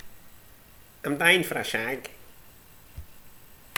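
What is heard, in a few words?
"dyin", Scottish slang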